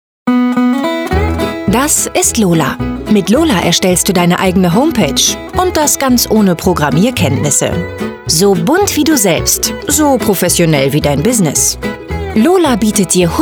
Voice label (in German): narrator Erklärfilm; Lola